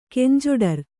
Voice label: "ಕಂಜೊಡರ್", Kannada

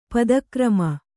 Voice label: "ಪದಕ್ರಮ", kannada

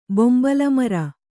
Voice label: "ಬೊಂಬಲಮರ", Kannada

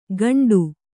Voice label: "ಕಂಡು", Kannada

